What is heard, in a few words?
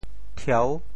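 "thieu6", Teochew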